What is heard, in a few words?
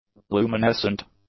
"luminescent", English; adjectives